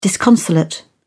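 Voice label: "disconsolate", English, British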